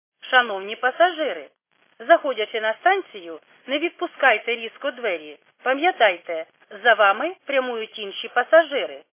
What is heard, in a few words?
khs st female, subway station